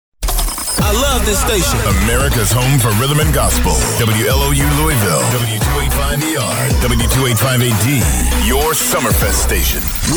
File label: Radio Imaging